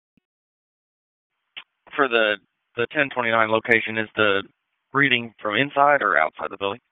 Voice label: FIRE OPS, p25

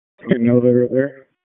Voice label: FIRE OPS, p25; FIRE OPS 4; wake p25

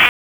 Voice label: Raleigh Police Southwest District Tac, p25

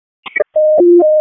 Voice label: WC EMS ALERT, p25

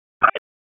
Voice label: Police North District Tac 1, p25; Raleigh; wake

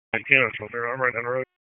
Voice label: WSO DISP 3, P25 recordings; wake

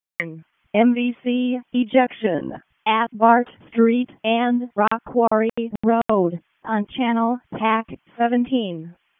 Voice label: EMS ALERT, p25

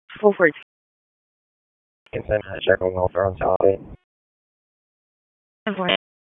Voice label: Police Southeast District Disp, p25; Raleigh

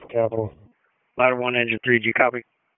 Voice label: FIRE OPS, p25; wake

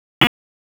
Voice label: Police Northwest District Tac 1; Raleigh; wake

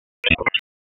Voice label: Police Spec Ops Tac 4, p25; Raleigh; wake

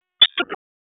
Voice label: Police Northwest District Tac 1; wake p25

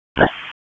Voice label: EMS ALERT, p25

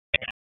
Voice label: Raleigh Police Northeast District Tac, p25; wake

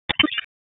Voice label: Police Northwest District Tac 1; Raleigh; wake

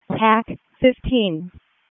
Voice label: WC EMS ALERT, p25

